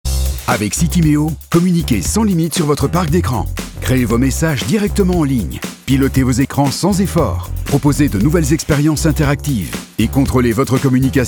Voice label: tv vo medium dynamic corporate; citymeo

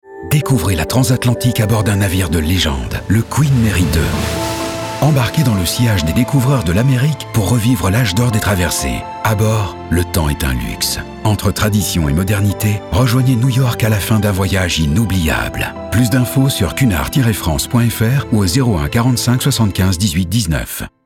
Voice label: advert vo low warm dreamy; cunard croisieres; bown

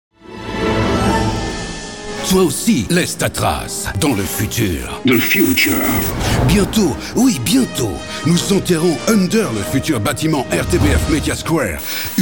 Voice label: corp vo medium acting overexcited; timecapsule rtbf

